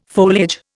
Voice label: "foliage", Indian

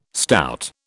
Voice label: "stout", British

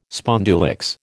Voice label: "spondulicks", American

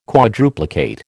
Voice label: "quadruplicate", American

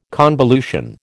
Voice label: "convolution", American

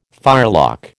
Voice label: "firelock", American

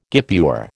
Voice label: "guipure", American

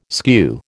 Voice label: "skew", American

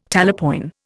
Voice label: "talapoin", Indian

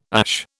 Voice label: "ASH", British

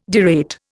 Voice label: "derate", Indian

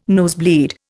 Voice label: "nosebleed", Indian

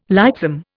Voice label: "lightsome", Indian

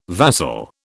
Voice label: "vassal", British

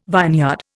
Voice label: "vineyard", Indian

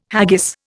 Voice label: "haggis", Indian

